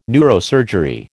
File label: "neurosurgery", American